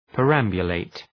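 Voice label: "perambulate", English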